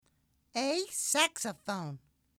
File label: "saxophone", English; music